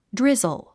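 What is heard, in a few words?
"drizzle", English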